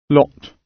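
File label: "lot", British English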